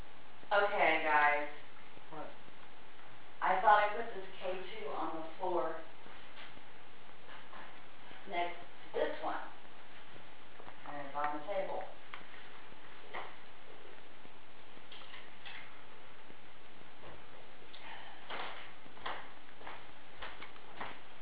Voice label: house breathy nooo

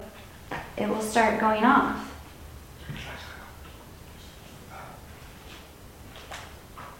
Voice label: male whispering

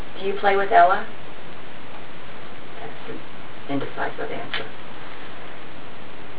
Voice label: hear young male voice, house

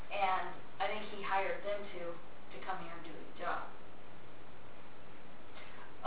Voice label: male voice get out; axemurderhouse

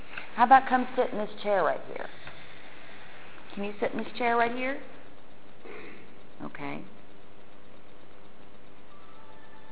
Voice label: sounds like male voice leave

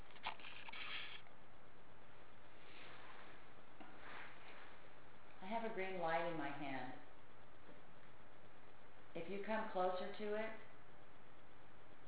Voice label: parlor many voices

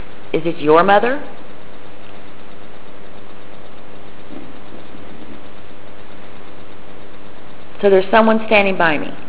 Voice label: deep male voice

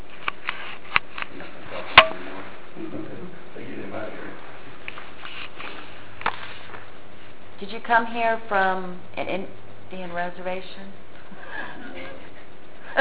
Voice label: temple boiler rm whispered voice says yes

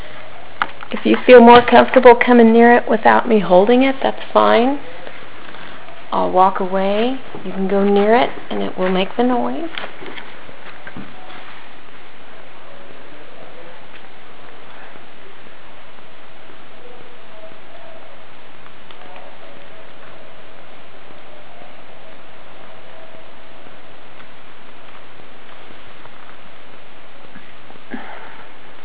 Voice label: evp low whisper what

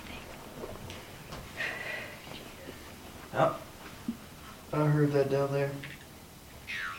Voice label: womens voice

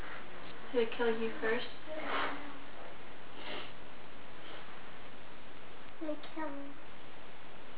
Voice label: evp clear childs voice

